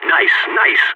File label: nice shot, balkan; vo